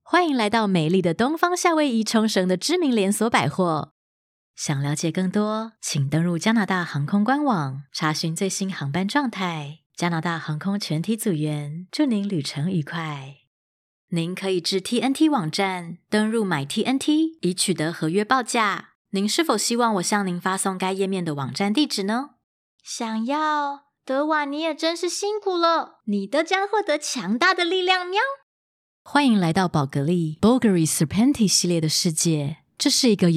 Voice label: Taiwanese Mandarin, F, voice-over; Voicereel

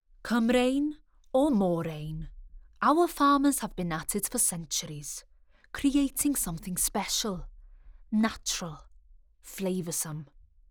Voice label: English Welsh, F, Narration, accent of wales

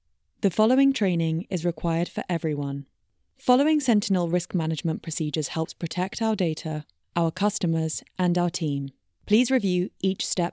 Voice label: English, F, Corporate; elearning voice over

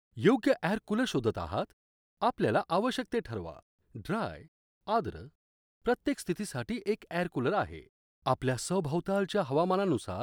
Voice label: Marathi, M, Commercial

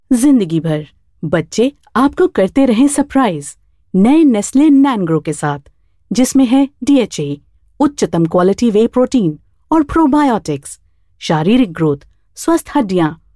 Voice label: Hindi, F, Commercial

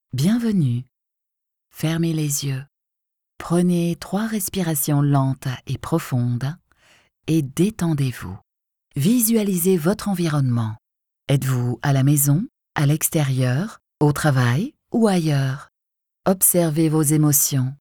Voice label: French F Meditation

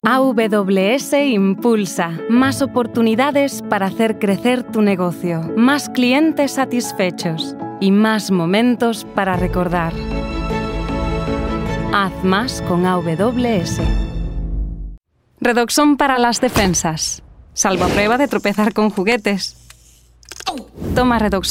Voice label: Spanish, F, Commercial, voice-over

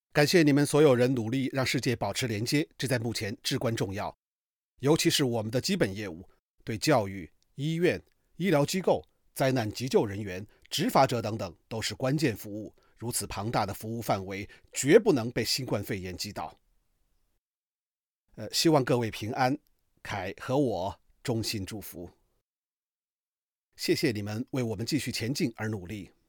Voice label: Chinese Mandarin, M, voice-over

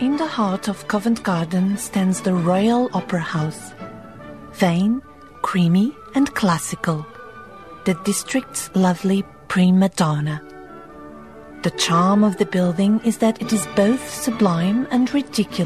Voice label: Portuguese English, F, Narr, accent of portugal

